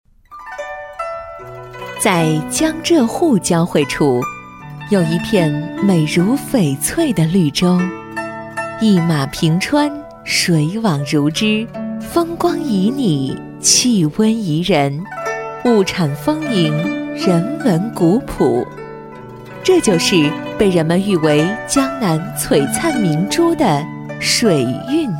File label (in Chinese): 宣传片 旅游 甜美; 水韵嘉善